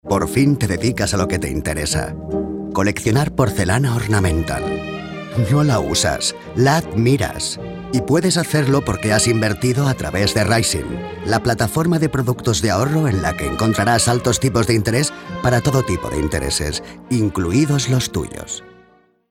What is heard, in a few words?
male spanish invest comercial; ideasonora